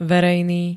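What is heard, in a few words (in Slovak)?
"verejný", Slovak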